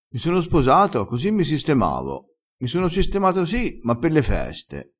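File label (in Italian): "sistemato", vocabolario dialettale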